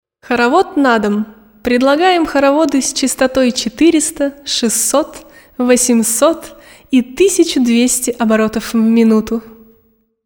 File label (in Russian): Diktori studii Reclama